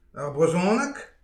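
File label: "ar brezhoneg", Breton; petit precis de prononciation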